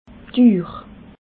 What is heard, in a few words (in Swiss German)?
"uhr", alsace